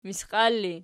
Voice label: "misqial", Archi

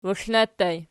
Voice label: waxlna ergpl, archi

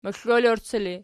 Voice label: "moxlolorci", Archi

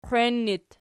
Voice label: "qwein", Archi

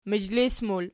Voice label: "mizhlis", Archi

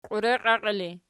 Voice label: "qiureqiaqii", Archi

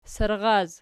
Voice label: "sarraz", Archi

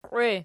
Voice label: "qiwe", Archi

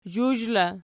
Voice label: "zhuzh", Archi

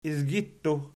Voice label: "izgittu", Archi

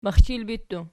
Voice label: "mixchilbittu", Archi

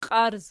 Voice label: "qiarz", Archi

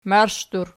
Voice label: "marshdur", Archi

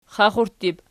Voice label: xaxurttu pl, archi

